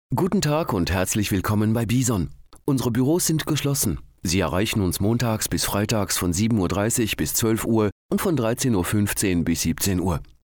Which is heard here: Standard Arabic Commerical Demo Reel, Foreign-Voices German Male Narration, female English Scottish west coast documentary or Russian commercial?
Foreign-Voices German Male Narration